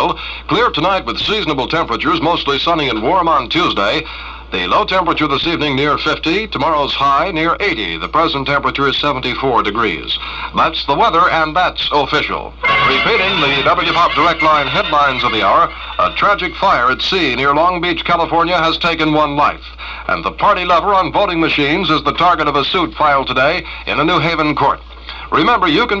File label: news